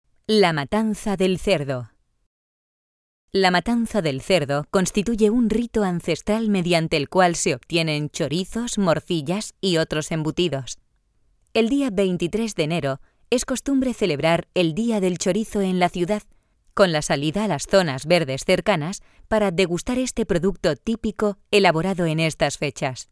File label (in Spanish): audioguia; audioguia museo de la mineria; esp